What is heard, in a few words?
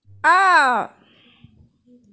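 "er4", Mandarin Chinese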